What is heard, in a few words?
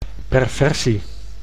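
"perversie", Dutch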